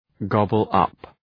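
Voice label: "gobble up", English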